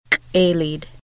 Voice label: load the canoe, Lushootseed